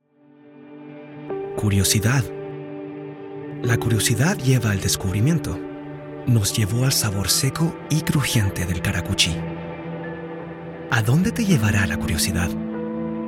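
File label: Latin American Spanish, Commercial; GoLocalise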